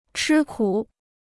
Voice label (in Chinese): "吃苦", Chinese